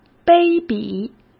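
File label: "卑鄙", Mandarin Chinese; chinese flashcards; hsk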